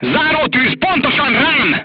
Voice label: voice message command, crew tanks hungarian; War Thunder

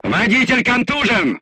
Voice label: voice message driver contused, crew tanks russian

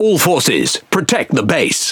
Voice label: Speech Quick Commands Ally Base, EN GB; World Of Warships

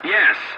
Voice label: voice message yes, english; War Thunder

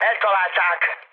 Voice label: aircraft destroyed, speech hun; War Thunder

